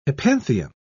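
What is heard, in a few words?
"hypanthium", British English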